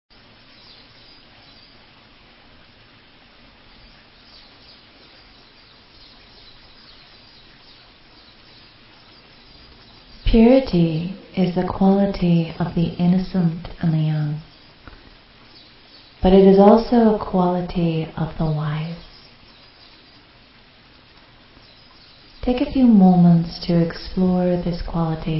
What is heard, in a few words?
Guided Meditation; Ocean Of Purity; Release Your Wings; Experiencing God Series